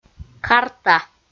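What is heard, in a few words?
"карта" Komi, female; kpv audio dict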